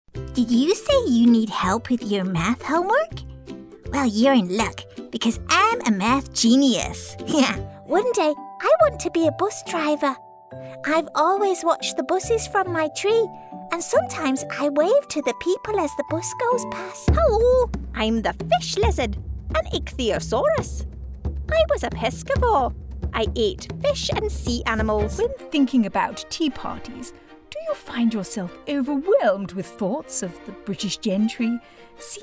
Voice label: character

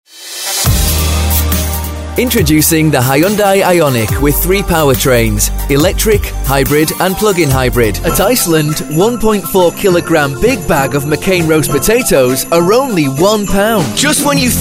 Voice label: Commercial Voice Over

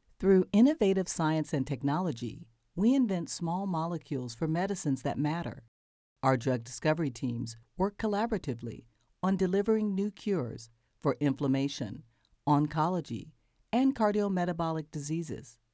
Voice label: elearning sample

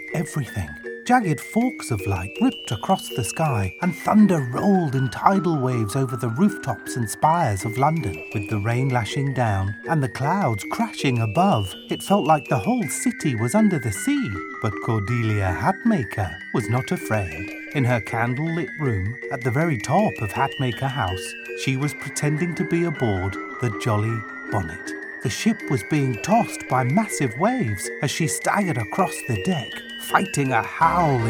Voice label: Narration

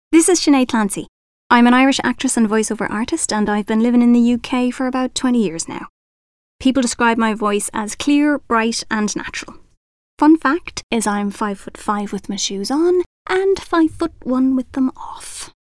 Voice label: Natural Voice

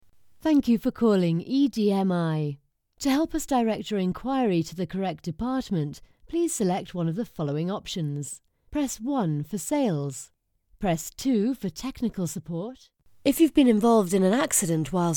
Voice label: telephone messaging on hold and IVR

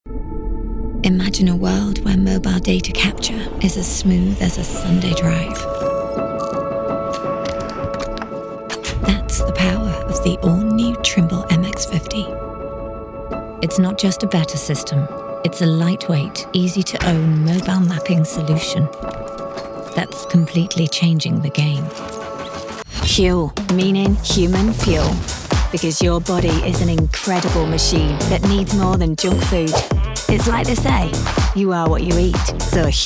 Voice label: Female VO

